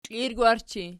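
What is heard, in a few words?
"klirgwarchi" nominative, Archi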